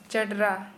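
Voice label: Santali female bald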